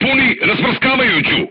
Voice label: voice message load Frag, crew tanks serbian